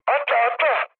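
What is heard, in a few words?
Turkish bailout, mood med, speech; War Thunder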